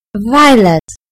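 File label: "violet", British English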